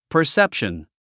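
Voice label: "perception", English word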